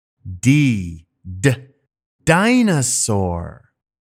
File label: D Dinosaur, english pronunciation; alphabet; phonics